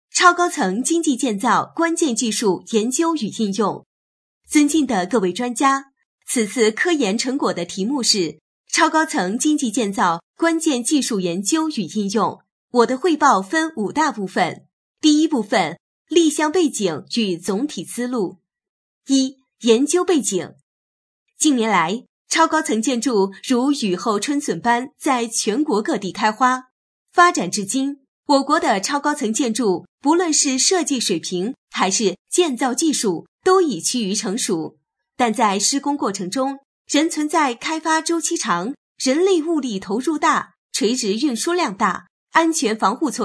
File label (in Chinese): 女声; 超高层经济工程介绍